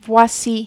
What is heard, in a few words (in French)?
"voici", French